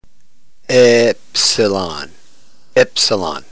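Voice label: "epsilon", New Testament Greek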